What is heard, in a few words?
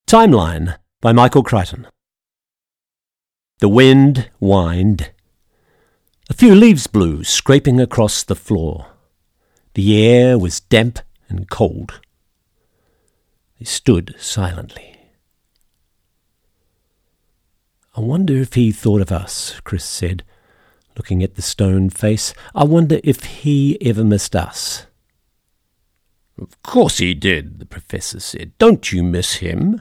Audio Book